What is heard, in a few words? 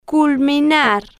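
"culminar", Spanish, slow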